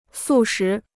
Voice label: "素食", Chinese

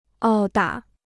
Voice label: "殴打", Chinese

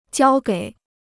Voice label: "交给", Chinese

